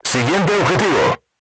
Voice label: voice message target destroyed, Spanish

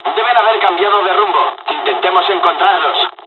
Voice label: dialog, dialogs spanish; us midway; War Thunder

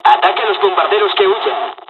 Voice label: dialogs spanish; War Thunder

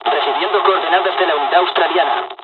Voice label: dialogs spanish; War Thunder

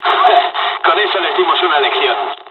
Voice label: dialogs spanish; War Thunder

